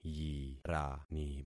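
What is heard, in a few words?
"yirani", concatenated syllables; Affixing experiment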